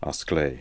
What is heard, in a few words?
"Asklay", Beelzebub pronounciations; Fourth Way